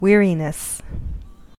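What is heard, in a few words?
"weariness", American English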